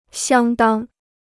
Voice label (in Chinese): "相当", Chinese